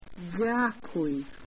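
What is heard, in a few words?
"thanks", Belarusian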